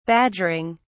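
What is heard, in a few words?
"badgering", British English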